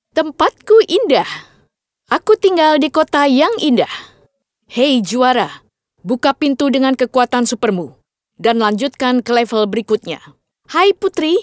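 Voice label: INDO female children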